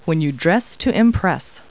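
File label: woman, Talking Clip Words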